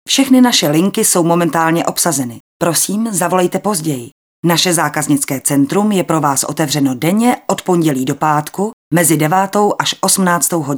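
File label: czech female voice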